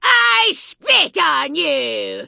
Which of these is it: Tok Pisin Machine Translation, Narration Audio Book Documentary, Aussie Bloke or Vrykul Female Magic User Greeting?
Vrykul Female Magic User Greeting